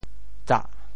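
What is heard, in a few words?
"tsah4", Teochew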